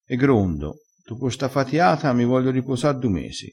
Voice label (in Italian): "gronda", crespinese